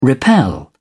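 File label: "repel", British English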